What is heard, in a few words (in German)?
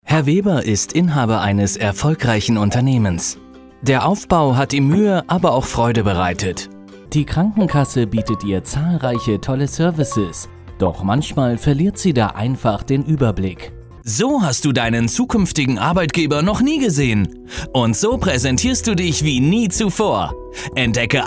erklaervideos kurz